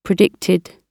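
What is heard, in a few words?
"predicted", British English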